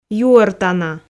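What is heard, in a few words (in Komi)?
"юортана" Komi, female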